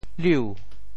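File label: "liu2", Teochew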